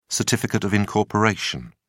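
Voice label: "certificate of incorporation", British English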